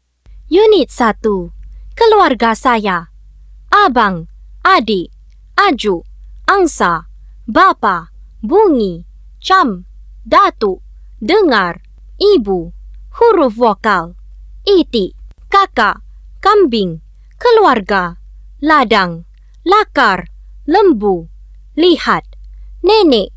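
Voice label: Malay; SMART READER WORDS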